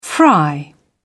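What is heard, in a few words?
"fry", British English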